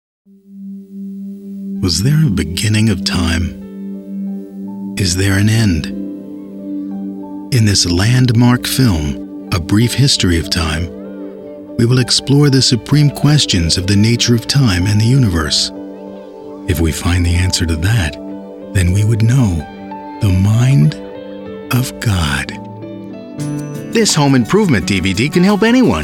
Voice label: Narration